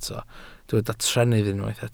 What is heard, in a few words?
"trennydd", Welsh